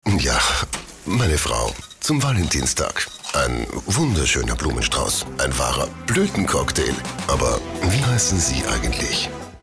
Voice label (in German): Mann